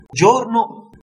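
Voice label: "giorno", Italian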